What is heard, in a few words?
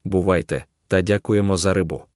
so long Ukrainian